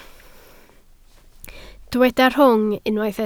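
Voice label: "rhwng" Welsh, spoken word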